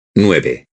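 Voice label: "nueve", Spanish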